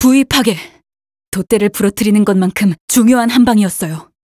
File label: Announcer Part Break